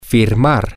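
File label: to sign, Spanish, male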